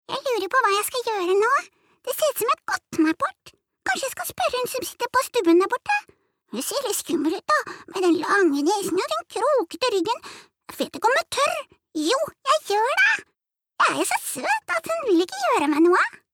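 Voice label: Norwegian dub character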